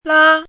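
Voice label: "lā", Chinese; 拉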